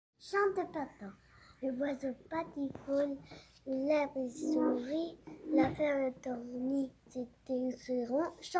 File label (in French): Poesie printemps